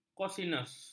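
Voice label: "kosinus", Malay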